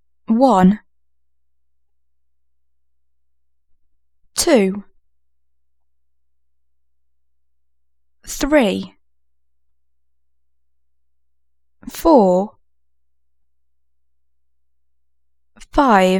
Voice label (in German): englisch